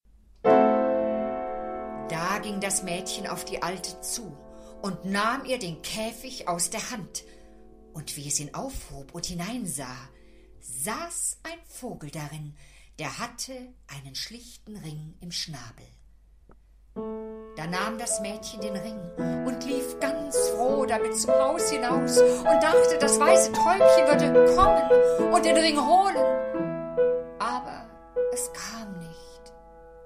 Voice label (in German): lesung; zauber die alte im wald